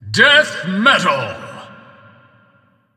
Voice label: announcer; deathmetal; Crypt of the NecroDancer